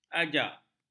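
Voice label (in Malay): "ajak", Malay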